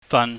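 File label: "fan", Cantonese, low tone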